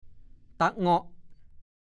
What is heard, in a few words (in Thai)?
ตะเง่าะ, ภาษาโคราช